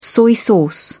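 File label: "soy sauce", English, British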